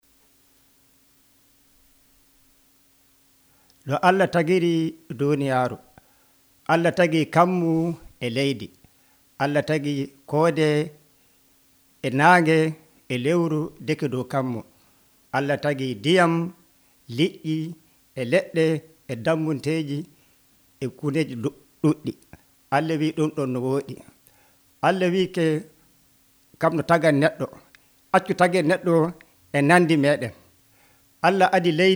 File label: western niger fulfulde, drama; fulfulde adamawa; bible stories; beginnings création du monde; abc nt drama